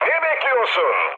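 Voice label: attack position, mood med, Turkish; speech; War Thunder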